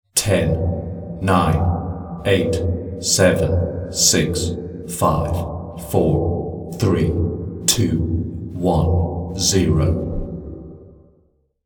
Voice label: 10 To Zero Male Countdown Underground Chamber

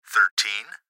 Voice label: Gps Voice, Male

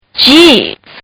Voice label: Kid Says Geez; Talking; Partners In Rhyme

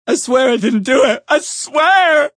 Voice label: Swear I Didnt Do, Male, crying; VoiceBox

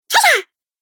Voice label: "Treasure" Cartoon Game Voice

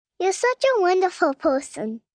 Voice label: Wonderful Person, Endearment, 1 child, 5 yrs; Talking Sound Effects

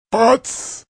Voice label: Putz, Male; Attitude; VoiceBox

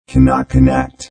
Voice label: Robot Voice, Robot Type 2 voice; "Cannot connect"

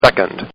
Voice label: Man Says Second; Talking; Sound Effects:Voice; Partners In Rhyme

